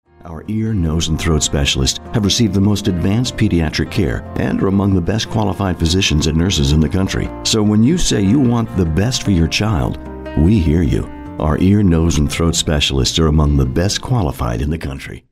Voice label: caring

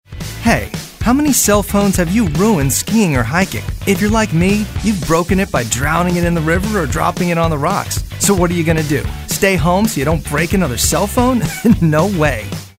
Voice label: Upbeat

